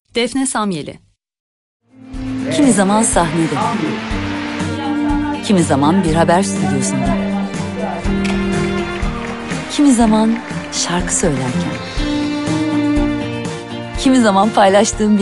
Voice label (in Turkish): turkce kadin